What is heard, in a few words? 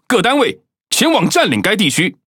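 Speech Quick Commands Enemy Point, Taiwan; World Of Warships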